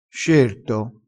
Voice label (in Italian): "scerto", crespinese